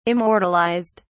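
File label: "immortalized", British English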